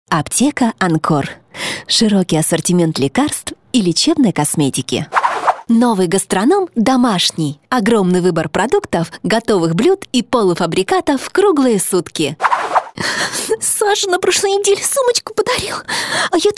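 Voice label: Russian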